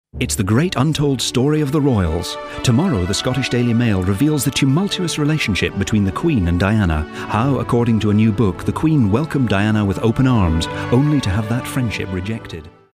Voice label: scottish